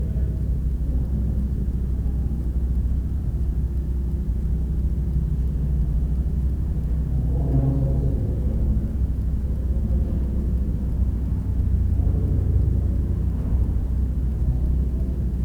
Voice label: people in the backround talking then pound then giggle; Eloise Haunted Tour; EVPS